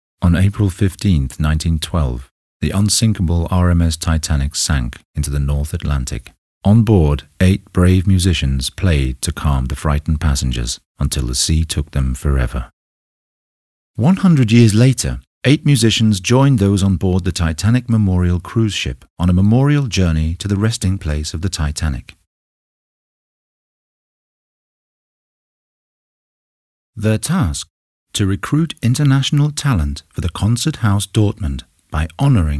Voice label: male english northern accent documentary narration; titanic; Devine Voices